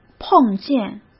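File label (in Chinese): "碰见", Mandarin; chinese-flashcards; hsk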